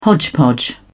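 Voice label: "hodgepodge", British English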